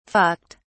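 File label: "fucked", British English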